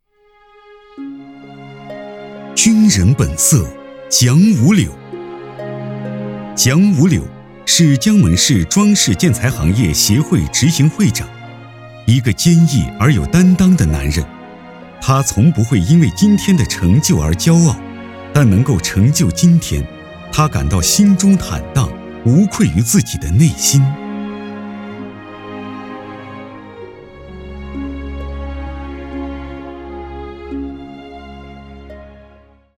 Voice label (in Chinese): 男 纪录片 退役军人纪录片